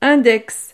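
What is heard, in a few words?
"index", French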